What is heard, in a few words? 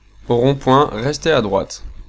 Right, French, male